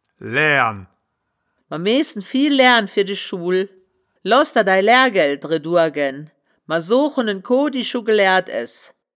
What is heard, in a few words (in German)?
"laehren", wittlich